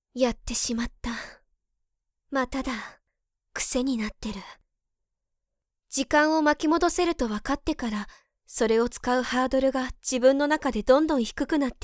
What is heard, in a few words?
sample woman